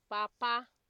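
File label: "papa", Nigerian Pidgin, female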